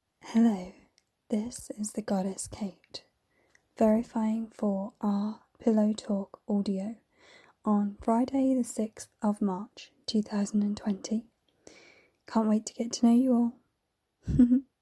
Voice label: Pillow talk audio

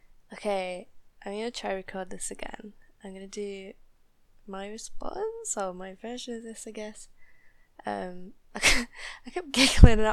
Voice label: Dirty accent

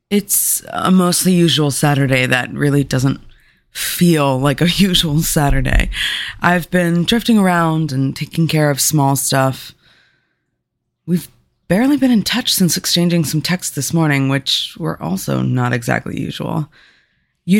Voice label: F4M, Nervous, Erotic audio; Everyone's Cool With It; Narrative; GFE; Adventurous; Pink Bunnies; Party

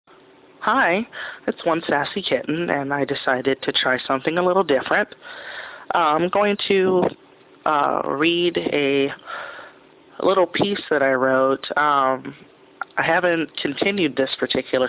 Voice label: microfiction, F voice; In His Clothes

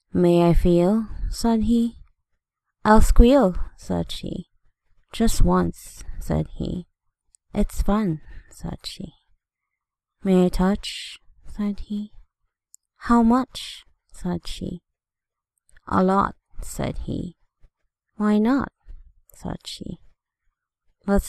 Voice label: F, poetry; may i feel said he; poetry by e.e. cummings

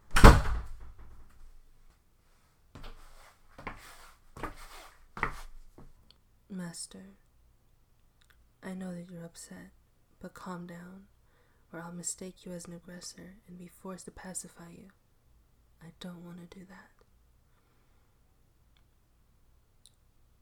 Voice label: Robot Assistant

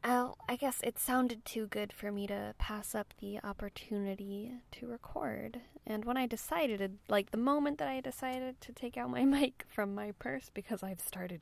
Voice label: [F4A] a rambly car recording [some rain]; Solo Outings, Podcasts, and Spicy Vegan Drama; thunder

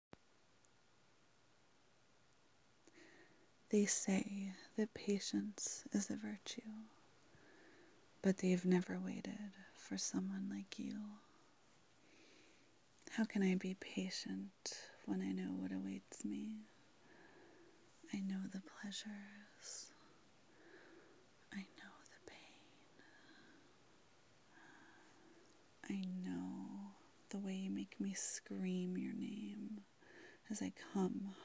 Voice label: F4M; Good Little Kitten; Oral; Pain play; Patience is a virtue

